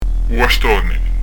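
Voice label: "u bastone", Prononciation du corse; u wast'òne; Son "adouci"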